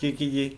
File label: "sell", Korku